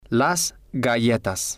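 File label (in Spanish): las galletas, Spanish, male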